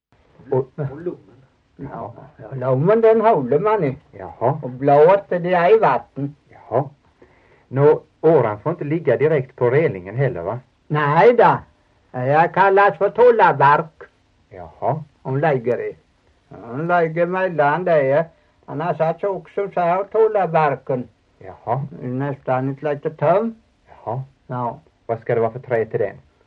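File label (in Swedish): Torhamn Blekinge, dialekter; Folkminnen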